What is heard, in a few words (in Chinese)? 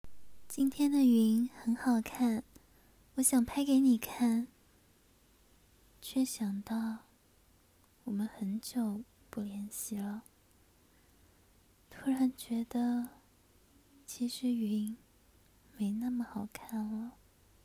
少女 伤感